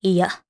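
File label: Vox Deny, Japanese; kingsraid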